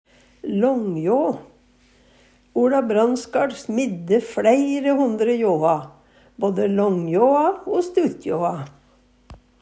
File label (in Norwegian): langja, Numedalsmål